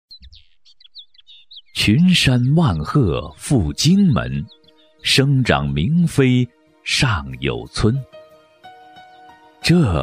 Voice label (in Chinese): 男 纪录片 抒写型; 稳重底蕴; 沉稳大气; 香溪孕秀